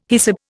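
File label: "hyssop", Indian English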